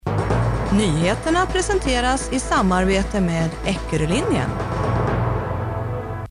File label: news; steelfm